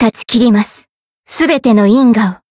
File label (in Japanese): chant